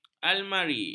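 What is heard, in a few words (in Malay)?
"almari", Malay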